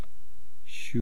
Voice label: "shuu", East Cree syllabics